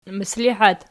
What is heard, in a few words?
"mislihat", Archi